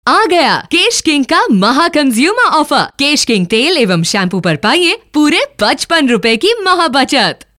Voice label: Female

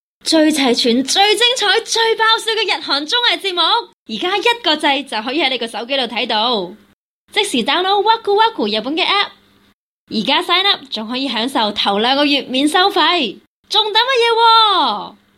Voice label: cantonese ad

